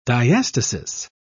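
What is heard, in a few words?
"diastasis", British English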